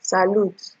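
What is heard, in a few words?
"salute", Nigerian Pidgin, female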